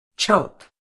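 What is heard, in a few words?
"choke", British English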